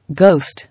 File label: "Ghost", English